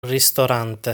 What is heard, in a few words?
"Ristorante", Italian